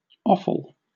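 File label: "offal", British English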